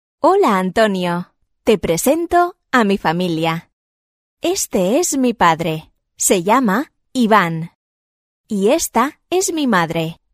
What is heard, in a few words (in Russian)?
urok dialog